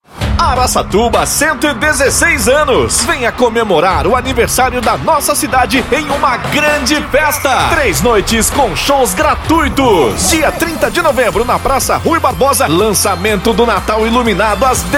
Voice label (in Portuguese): chamada aniversario locucao; aracatuba 2024 prefeitura